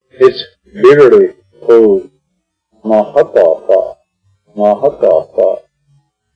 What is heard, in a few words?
bitter cold, noohókoo3óó' = it is bitterly cold, Arapaho; Arapaho Language Project; RMNP; Weather Vocabulary related to Winter